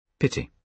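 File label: "pity", British English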